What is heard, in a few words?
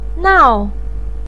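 "nao", Mandarin pinyin, tone 4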